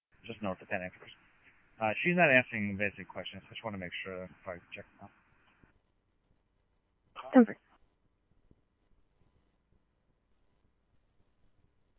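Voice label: scanner, Phoenix Police Department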